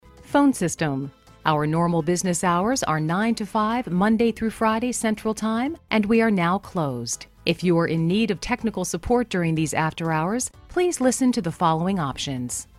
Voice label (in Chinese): 外籍英女-电话应答系统